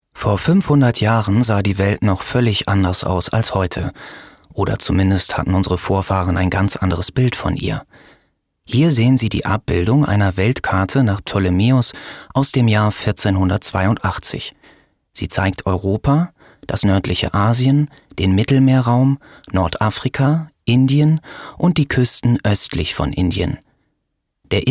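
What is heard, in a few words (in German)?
Kommentar, Freundlich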